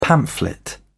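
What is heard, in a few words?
"pamphlet", British English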